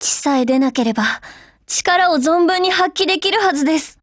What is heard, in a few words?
FEED JP; TEC9